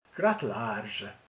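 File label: "egres", Hungarian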